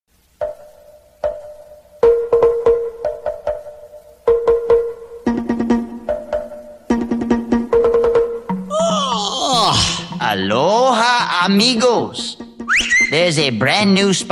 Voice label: Radio Promo; Enchanted Tiki Twirl Mocktail